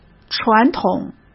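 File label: "传统", Mandarin Chinese; chinese-flashcards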